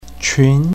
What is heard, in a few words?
"qun2", pinyin